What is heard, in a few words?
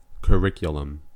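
"curriculum", US English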